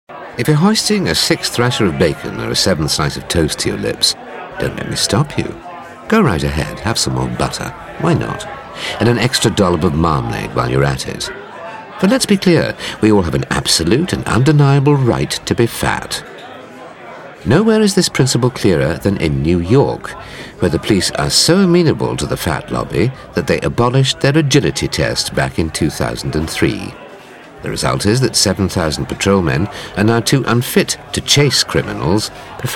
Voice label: Documentary

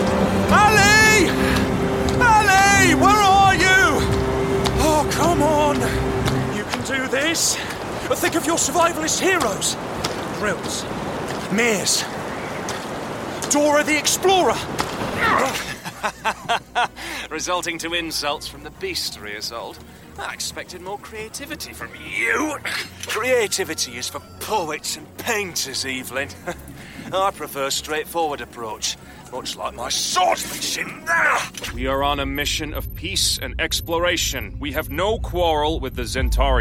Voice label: Character

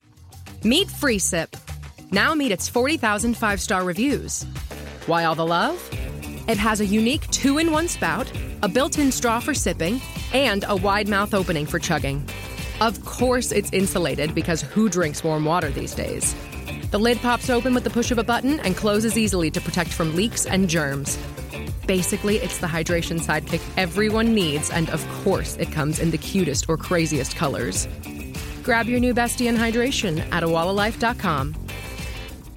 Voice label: Commercial

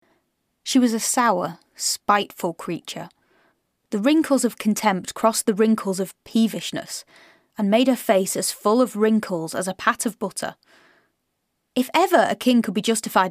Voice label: Narration

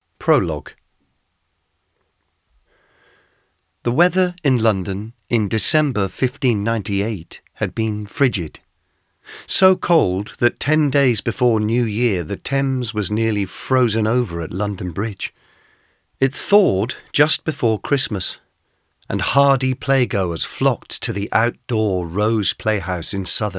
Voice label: Audiobook Sample

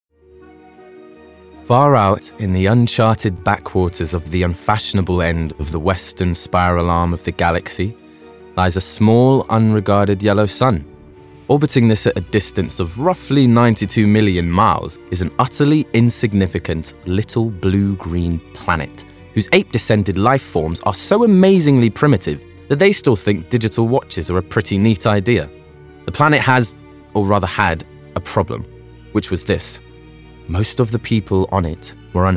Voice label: Narrative

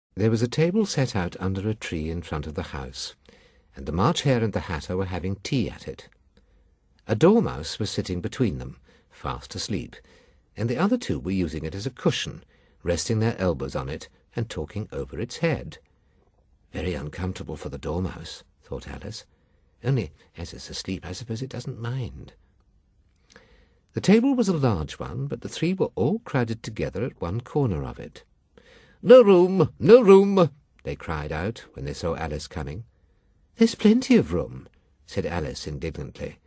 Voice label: Narrative

